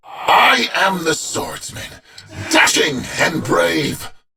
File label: Patrol, en; Warframe; Battle; Dax; DaxKnight